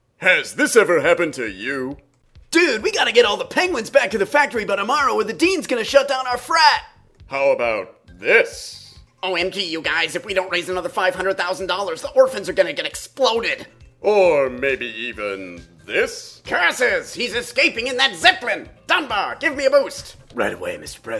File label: voice demo